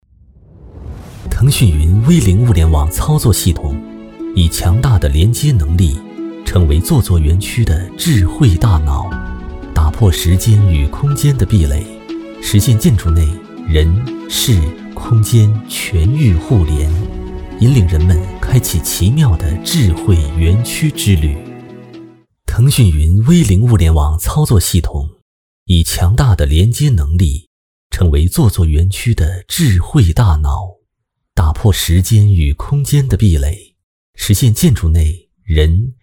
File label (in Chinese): 男 科技感